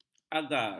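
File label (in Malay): "agar", Malay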